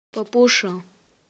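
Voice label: doll, Romanian; PictureDictionary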